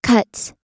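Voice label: "cuts", US English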